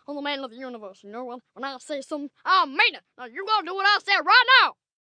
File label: Do What I Say Now, 1 boy, 9 yrs; Talking Sound Effects; Sound Effects:Voice; VoiceBox